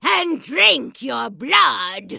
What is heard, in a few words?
Vrykul Female Magic User Pissed